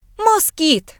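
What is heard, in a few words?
"mosquito", British English; gcompris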